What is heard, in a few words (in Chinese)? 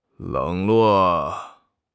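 "冷落", Chinese; HSK6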